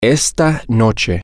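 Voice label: Spanish, tonight, male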